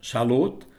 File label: "salud", es